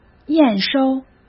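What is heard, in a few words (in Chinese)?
"验收", Mandarin Chinese; hsk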